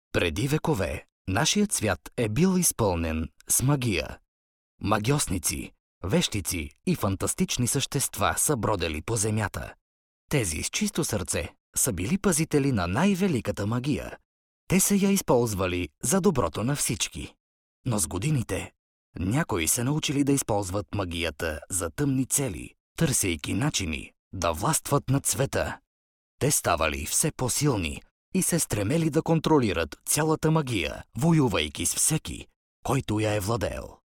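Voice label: bulgarian male narration; voice demos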